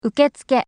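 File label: "uketsuke", Japanese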